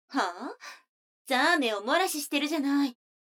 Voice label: 女の子 台詞

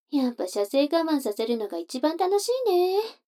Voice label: 女の子台詞

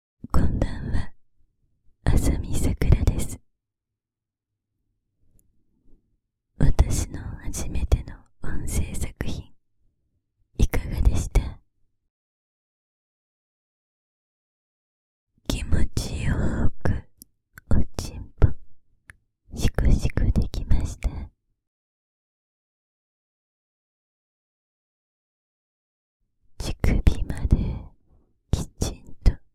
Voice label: 声優フリートーク

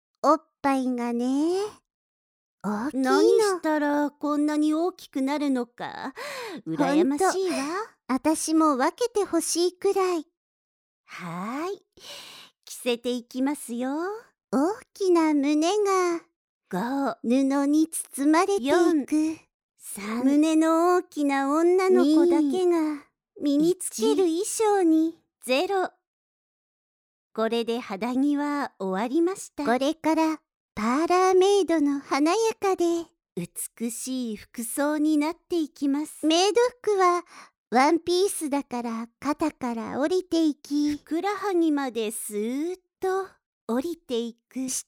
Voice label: 催眠誘導・皆とベテラン・有オルゴール音

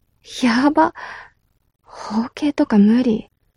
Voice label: mob女子台詞

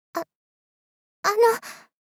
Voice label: あっ、あの・・・ 少女×中音域

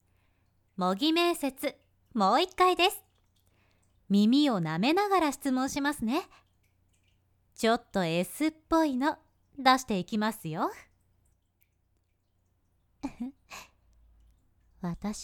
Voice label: 耳舐めしながら模擬面接 右 効果音なし

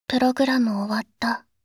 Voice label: ぷろぐらむ終わった…, システムボイス; Windows等向けシステムボイス

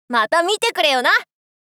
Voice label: またみてくれよなっ！ 少女×中音域

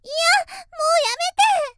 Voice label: 元気な少女, 嫌、もうやめて！（嫌がり）

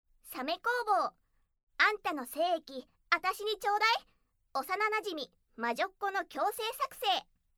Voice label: タイトルコール

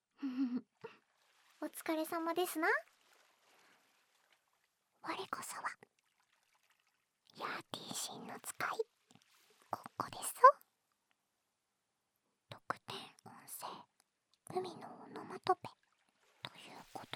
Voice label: 海のオノマトペ, ASMR

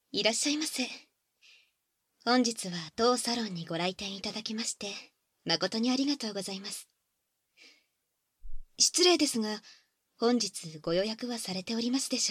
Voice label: 入店（バイノーラルマイク収録 効果音なし）

